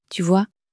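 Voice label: "tu vois", French, female; flashcards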